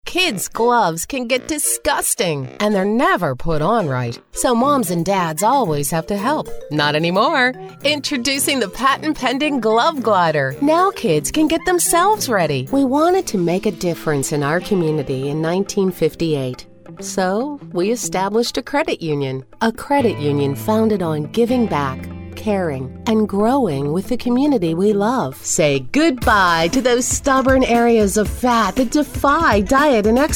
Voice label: Infomercial